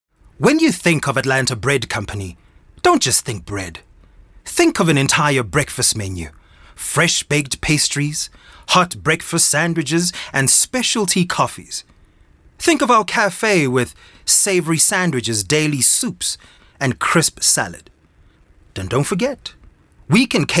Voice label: English Medium Sell